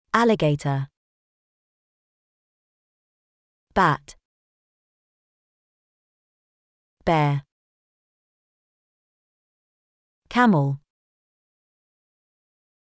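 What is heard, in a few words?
British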